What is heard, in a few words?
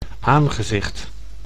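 "aangezicht", Dutch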